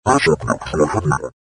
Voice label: garbled speech slow